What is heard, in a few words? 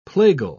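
"plagal", British English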